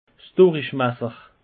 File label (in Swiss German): "durichmasser", alsace; peche